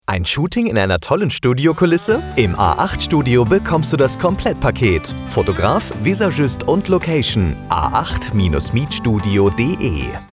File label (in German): Funkspot; A8 Mietstudio